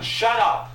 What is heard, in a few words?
shut up male; expletives